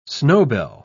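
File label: "snowbell", British English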